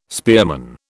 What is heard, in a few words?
"spearman", British